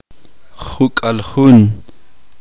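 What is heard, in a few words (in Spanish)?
uspanteko veintiuno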